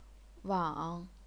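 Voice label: "wang", chinese pinyin, third tone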